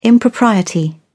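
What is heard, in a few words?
"impropriety", British English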